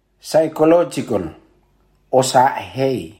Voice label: Psychological Os ahaee, Rotuman; Rotuman Language Week; 2022 Pacific Language Weeks